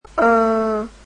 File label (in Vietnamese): "ooo", Vietnamese alphabet